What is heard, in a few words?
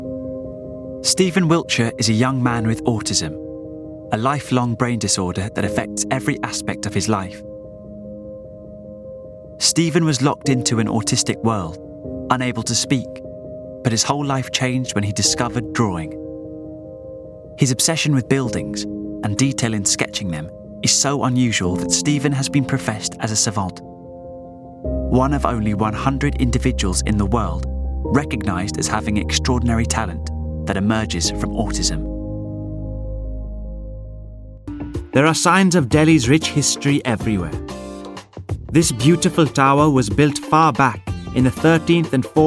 Narration